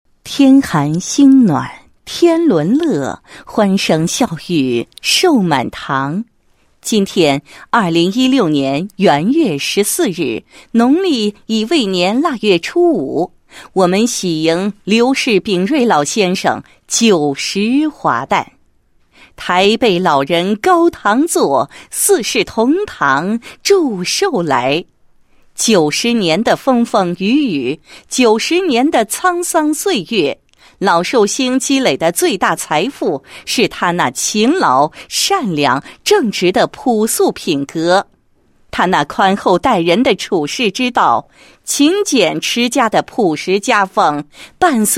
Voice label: 女-人物-老寿星90华诞, 配音案例

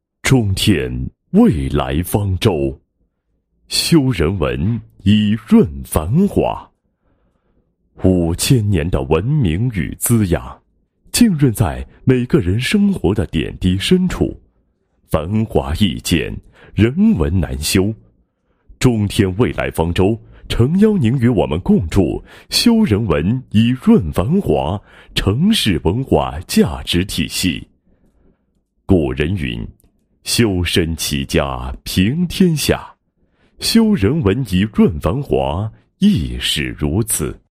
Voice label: 男 专题 宣传; 方舟礼约LED 城市精神文明建设

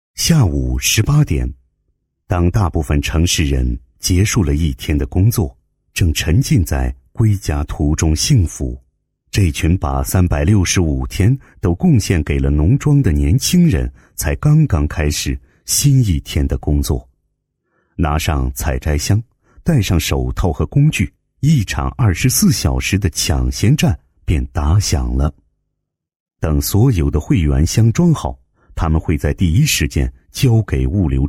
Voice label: 男 企业宣传 有机蔬菜基地宣传简介; 有机汇

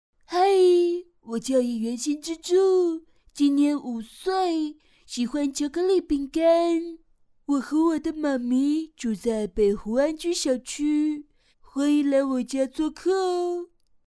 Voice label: 女 模仿, 国语女声配音; 蜡笔小新

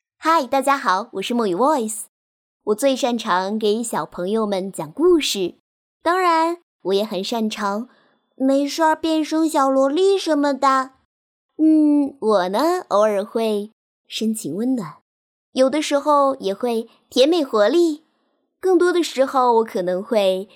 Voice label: 女 独白 自我介绍

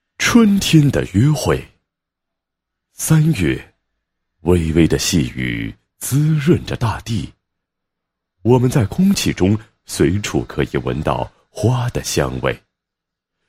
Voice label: 男 散文 春天的约会

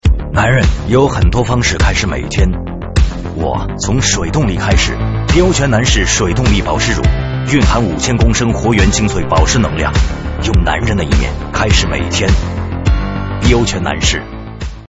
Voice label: 广告

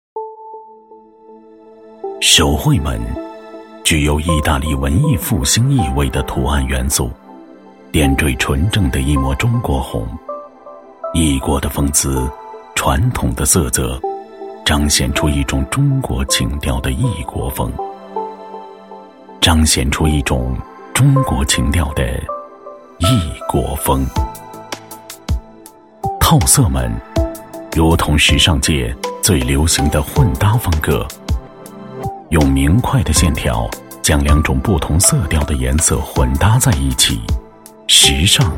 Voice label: 男25 专题, 国语男声配音

